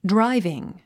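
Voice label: "driving", English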